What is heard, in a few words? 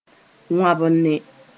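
"wãbne", Mooré; to graze animals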